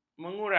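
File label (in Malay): "mengurang", Malay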